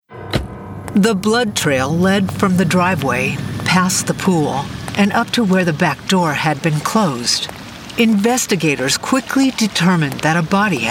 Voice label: TV narration

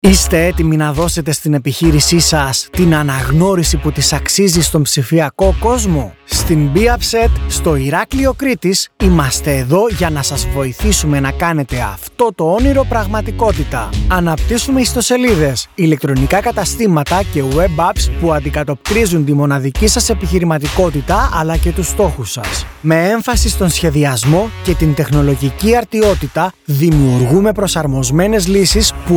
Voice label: Commercial, Radio Spot, Serious Dynamic; Be Upset

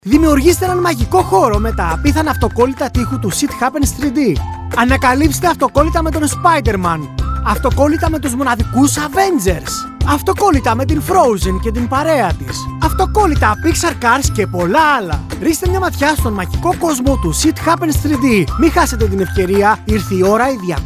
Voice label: Greek Commercial Happy

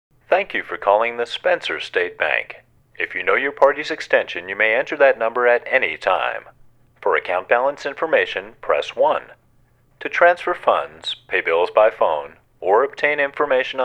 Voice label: On-hold

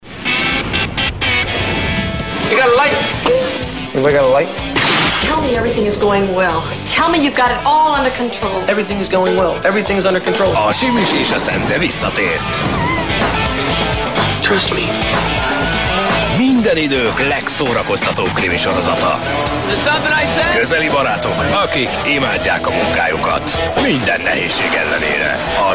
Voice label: station voice crime hungarian; axn

